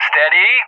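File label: "STEADY" English, speech; JTAC; Messages; DCS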